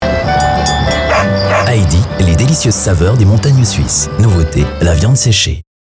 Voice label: french switzerland promos; swiss french

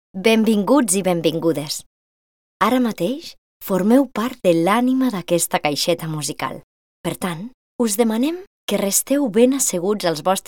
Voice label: catalan audiobooks

